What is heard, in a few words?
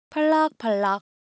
Korean, Ideo Phones; Motion